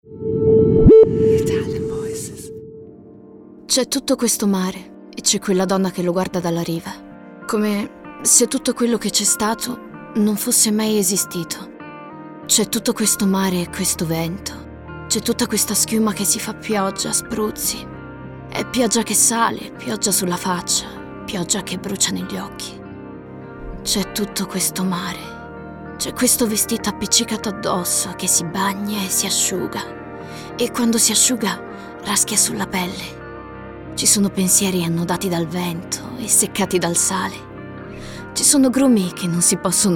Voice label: ITALIAN VOICES, female voices; Naked Reel